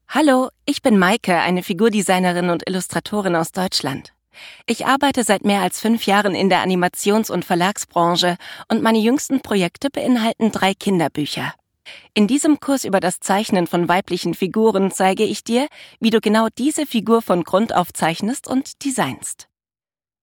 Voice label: VoiceOver; 21draw